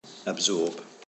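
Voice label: "absorb", British English, male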